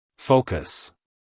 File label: "focus" US English, male; everyone-can-use-english